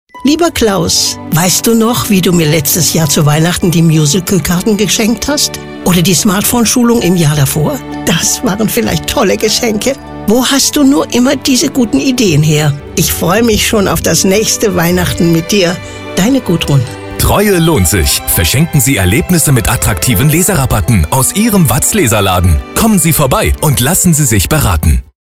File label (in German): Funkspot; Leserladen